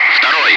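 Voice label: Russian loud; Wingman; DCS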